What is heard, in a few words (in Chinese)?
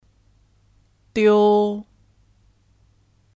"丢", Diū; Kata kerja; Hilang